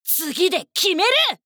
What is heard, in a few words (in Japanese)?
「次で決める！」, 女の子 オラオラ系少女 戦闘ボイス